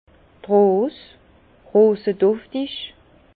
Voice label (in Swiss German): "rose", Bas Rhin